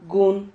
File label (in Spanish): "gun", silabas